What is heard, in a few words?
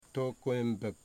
"neck", Wamut